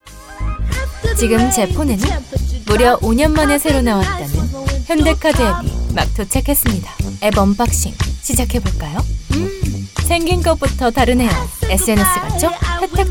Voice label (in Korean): 트렌디하고 세련된 톤; 현대카드